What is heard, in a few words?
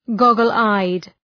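"goggle-eyed", English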